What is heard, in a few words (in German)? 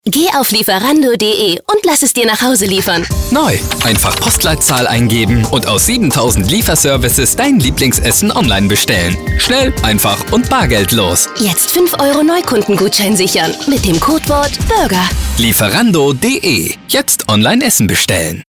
Werbung